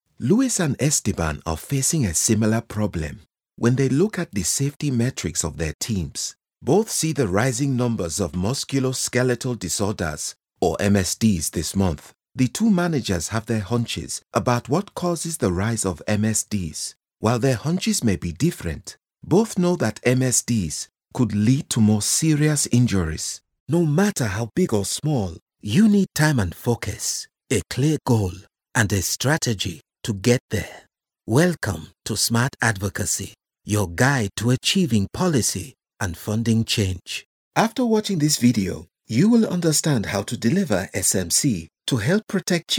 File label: West African Accent